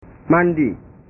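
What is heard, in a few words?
"mandi", Indonesian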